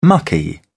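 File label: "mucky", British English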